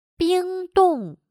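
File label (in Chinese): "冷冻" Mandarin Chinese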